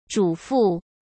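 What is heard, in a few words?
"主妇", Mandarin Chinese; chinese flashcards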